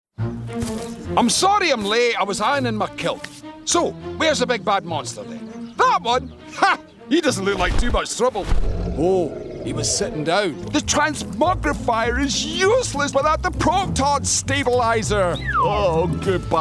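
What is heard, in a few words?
voice over animation reel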